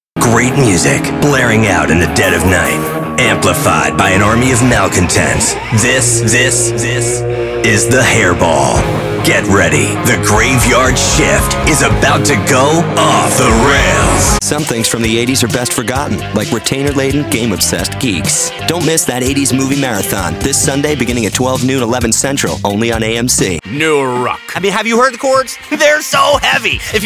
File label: Voiceover, men